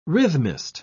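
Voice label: "rhythmist", British English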